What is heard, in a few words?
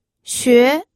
"xue2", pinyin